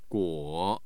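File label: "guo", Mandarin Chinese, tone 3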